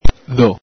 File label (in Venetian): "dho", Veneto